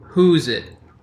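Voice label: "whosesoever", American English; pronunciations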